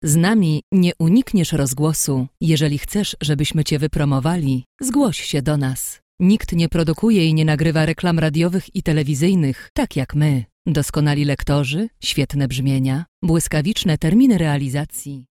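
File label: Polish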